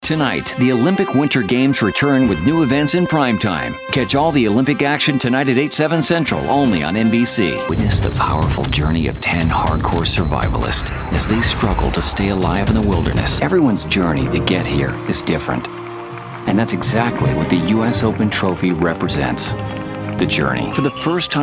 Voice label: Drama

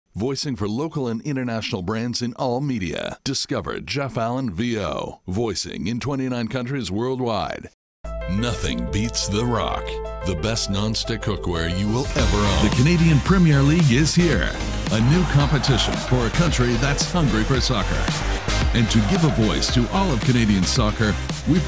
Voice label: TV Ads Video

